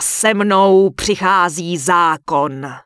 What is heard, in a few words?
Human Female Warrior NPC Vendor; wowpreklad; czwow